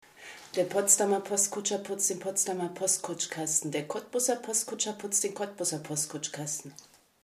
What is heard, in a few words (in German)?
deutsch